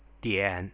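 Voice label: "dian", Chinese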